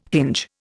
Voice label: "hinge", Indian

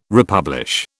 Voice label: "republish", British

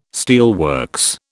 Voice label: "steelworks", British

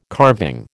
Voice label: "carving", American

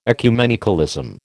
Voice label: "ecumenicalism", American

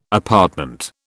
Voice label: "apartment", British

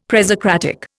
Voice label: "presocratic", Indian; Dictionary

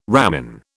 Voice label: "ramin", British

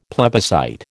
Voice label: "plebiscite", American

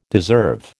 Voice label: "deserve", American

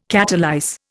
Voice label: "catalyse", Indian

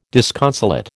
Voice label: "disconsolate", American

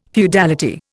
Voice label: "feudality", Indian

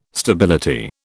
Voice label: "stability", British

